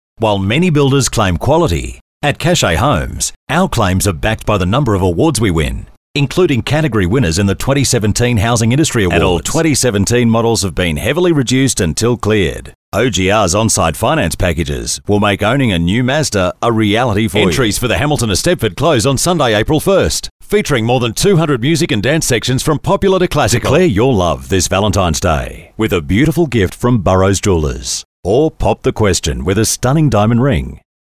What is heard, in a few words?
Soft Sell